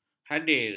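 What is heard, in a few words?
"hadir", Malay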